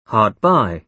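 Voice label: "hard by", British English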